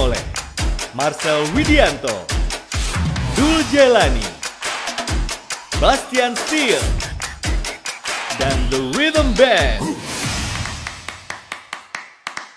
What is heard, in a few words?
INDONESIA CONCERT